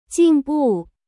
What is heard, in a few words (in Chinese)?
"进步", tone sandhi